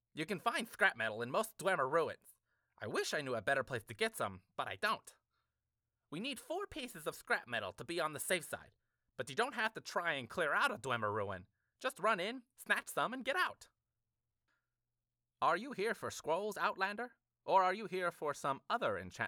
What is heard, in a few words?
bosmer, wood elf voice auditions; galbedir